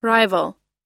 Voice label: "rival", US